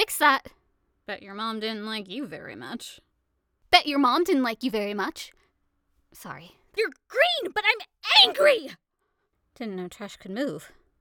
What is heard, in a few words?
Recruit Female